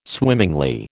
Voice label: "swimmingly", American